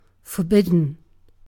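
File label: "forbidden" British English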